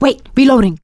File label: reloading quiet, survivor voice; producer